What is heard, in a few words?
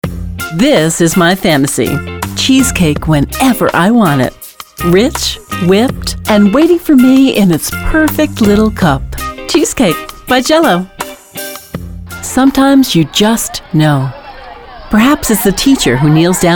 commercial, female voice over